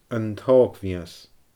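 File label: "An t-Ògmhios", Scottish Gaelic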